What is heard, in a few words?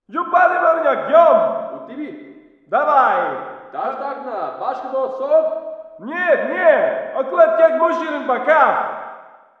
walla rus mountain conv; modern warfare 2; amb walla